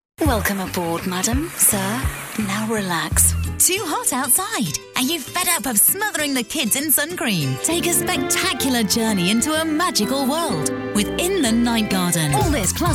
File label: Commercials